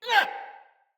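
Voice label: Attack Corrupted Sprouts, en; Battle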